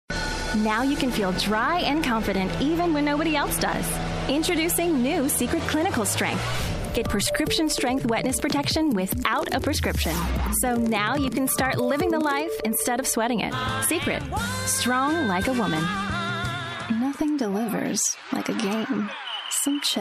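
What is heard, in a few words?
english US female commercial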